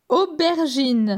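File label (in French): "Aubergine", French; vocabulaire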